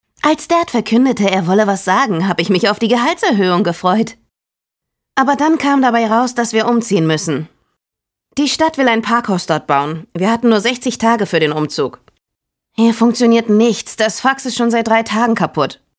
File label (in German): Voiceover